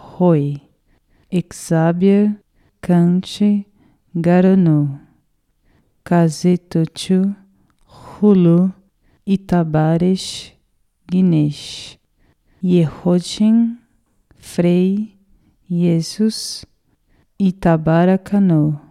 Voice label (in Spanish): ave maria amarico lento; ave maria idiomas